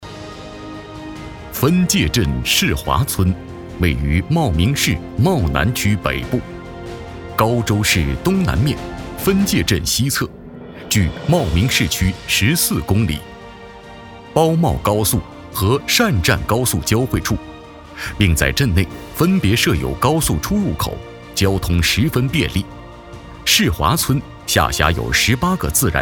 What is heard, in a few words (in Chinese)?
男 专题 乡村振兴